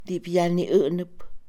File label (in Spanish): Mixe, Mujer; acusado; INALI